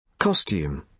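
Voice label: "costume", British English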